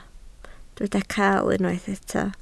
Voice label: "cael", Welsh

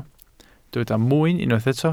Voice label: "mwyn", Welsh

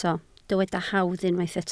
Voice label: "hawdd" Welsh

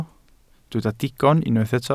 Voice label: "digon", Welsh

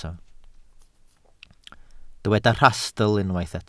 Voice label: "rhastl" Welsh

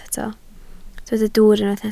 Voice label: "dwwr", Welsh

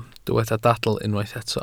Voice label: "dadl" Welsh

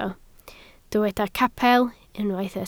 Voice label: "capel" Welsh

